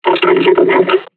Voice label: taunt, combine charger; Half Life Alyx